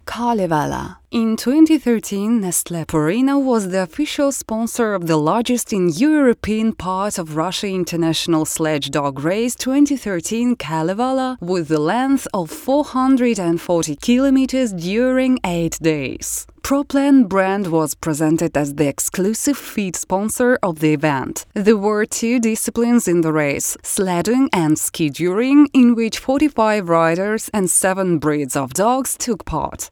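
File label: positive presentation, English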